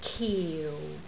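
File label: "kiu4", Cantonese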